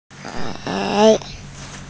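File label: "gei3", Mandarin Chinese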